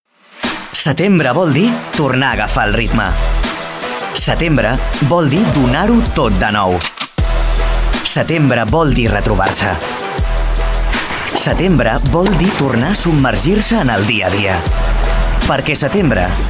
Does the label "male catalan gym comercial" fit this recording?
Yes